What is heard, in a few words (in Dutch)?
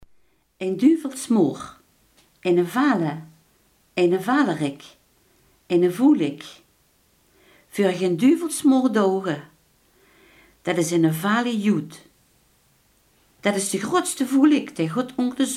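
iemand die gemeen is, Limburgse gesproken woordenboeken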